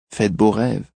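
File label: Sweet Dream French, Male; Talking; Voice Box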